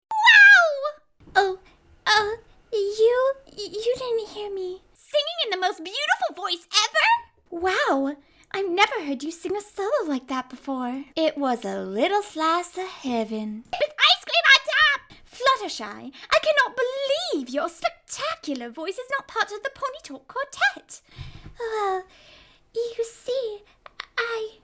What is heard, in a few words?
foreigner